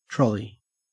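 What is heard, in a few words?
"trolley", Australian English; pronunciations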